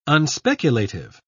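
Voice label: "unspeculative", British English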